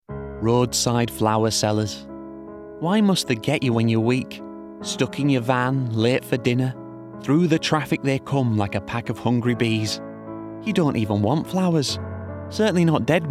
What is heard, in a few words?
Vans Northern; Renault